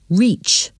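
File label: "reach", English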